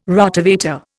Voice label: "Rotovator", Indian

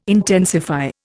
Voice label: "intensify", Indian

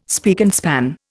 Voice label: "spick and span", Indian

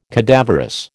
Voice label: "cadaverous", American

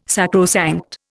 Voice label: "sacrosanct", Indian

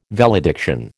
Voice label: "valediction", American

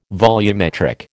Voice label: "volumetric", American

